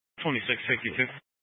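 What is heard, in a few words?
Sheriff Dispatch, Scanner audio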